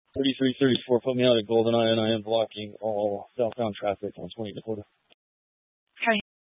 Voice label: Police Dispatch

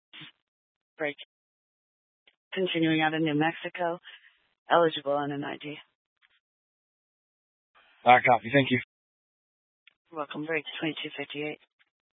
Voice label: Incident Tac 3, scanner

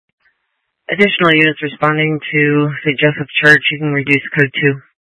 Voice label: Tactical Incidents, scanner

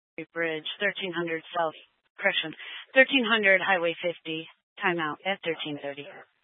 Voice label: Fire Department Dispatch; Grand Junction

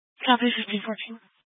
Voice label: Police Dispatch, scanner; Police 1 Dispatch

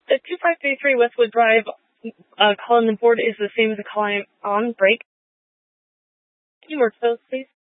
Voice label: Incident Tac 3, Scanner audio recording

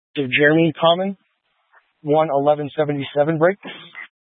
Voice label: Incident Tac 3, scanner

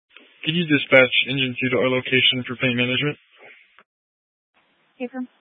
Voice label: Grand Junction Fire Department Dispatch

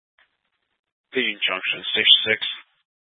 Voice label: Fire Dispatch, Scanner audio recording

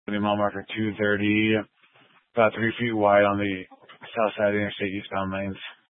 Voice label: Fire Dispatch

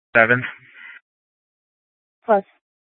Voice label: Tactical Incidents, scanner

